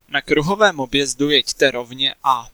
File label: Rb Cross, Czech male